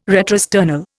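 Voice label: "retrosternal", Indian English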